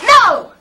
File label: "no", angry